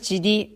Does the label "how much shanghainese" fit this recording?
Yes